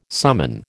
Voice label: "summon", American